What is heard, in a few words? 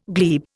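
"glee", Indian; Dictionary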